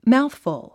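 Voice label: "mouthful", English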